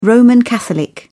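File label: "roman catholic", English, British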